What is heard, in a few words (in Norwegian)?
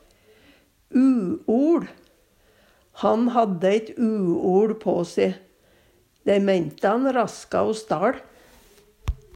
uoL, Numedalsmål; Substantiv inkjekjønn